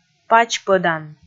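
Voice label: "пач пӧдан", Komi, male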